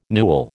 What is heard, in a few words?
"newel", American